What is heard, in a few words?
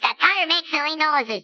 satire makes silly noises